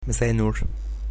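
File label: good afternoon, arabic egyptian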